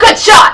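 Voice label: female good shot; lmctf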